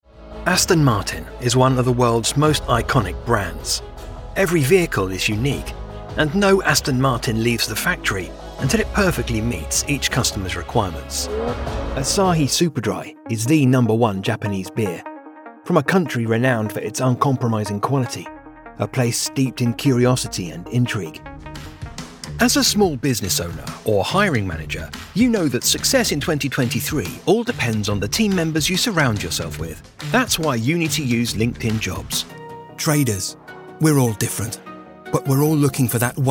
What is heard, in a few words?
English British commercial; Inglés (Reino Unido)